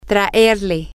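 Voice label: to bring him, Spanish, female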